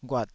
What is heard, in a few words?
"ghuat3", Taiwanese syllable